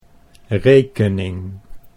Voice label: "rekening", Dutch